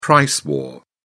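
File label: "price war", British English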